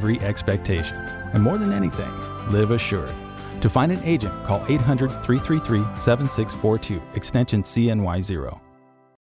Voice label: radio commercial business insurance; preferred mutual